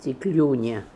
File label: "Tiklunia", pronounciations; Fourth Way; Beelzebub